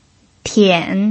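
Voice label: "tian", third tone, Mandarin Chinese pinyin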